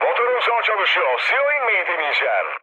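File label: Turkish damaged engine, mood low, speech; War Thunder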